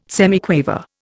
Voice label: "semiquaver", Indian